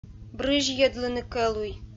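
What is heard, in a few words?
"брызьйӧдлыны кӧлуй", Komi-Zyrian, female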